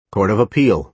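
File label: "court of appeal", American English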